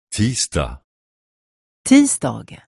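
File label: "tisdag", Swedish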